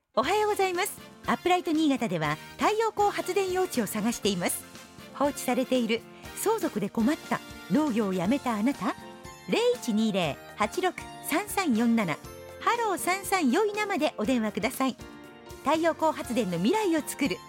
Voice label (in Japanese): お知らせ篇; アップライト新潟